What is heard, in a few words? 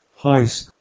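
"h2oissk", Proto-Indo-European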